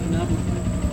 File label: "hinamui" mother, Serewen